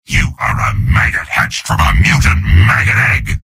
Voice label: soldier taunts; tf2; garrysmod; mvm

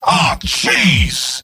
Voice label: scout jeers; mvm

